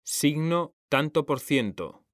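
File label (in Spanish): "tanto por ciento", Spanish; mekanta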